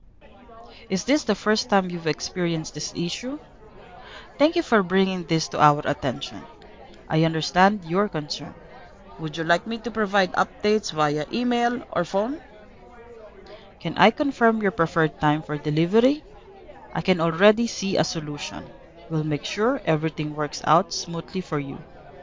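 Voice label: before, Original speech, Philippine accent; Krisp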